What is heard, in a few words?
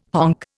"honk", Indian